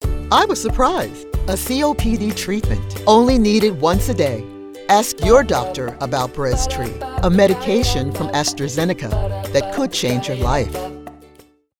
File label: Commercial; Astra Zeneca